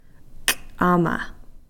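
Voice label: "roasting-spit", Takelma word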